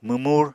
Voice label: "mwmur", Apatani